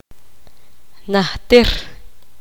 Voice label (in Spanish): poqomam hace mucho tiempo